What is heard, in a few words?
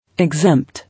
"Exempt", English